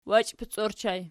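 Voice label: "wachipic" ergative plural, Archi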